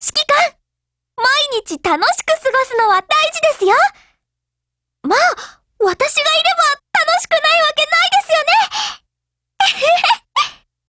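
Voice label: DIALOGUE WEDDING, Japanese; Carcano1891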